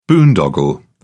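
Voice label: "boondoggle", British English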